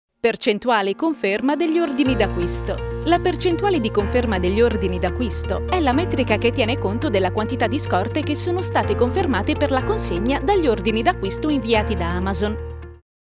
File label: explainer; AMAZON